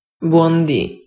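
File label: "buondi", Italian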